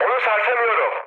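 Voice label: Turkish aircraft damaged, mood med, speech; War Thunder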